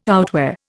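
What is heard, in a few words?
"outwear", Indian English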